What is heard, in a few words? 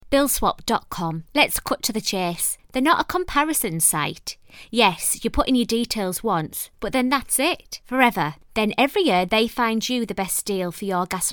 commercial